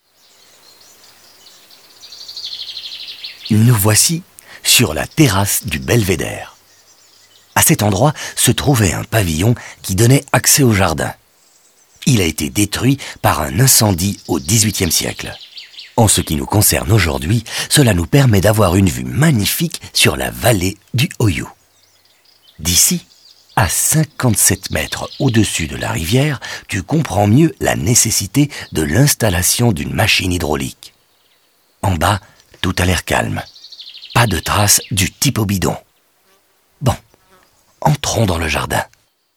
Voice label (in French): audioguide fr enfant; modave